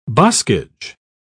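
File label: "boskage", British English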